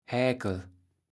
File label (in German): "Häkel", Platt